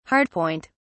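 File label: "hardpoint", British English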